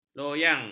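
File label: "loyang", Malay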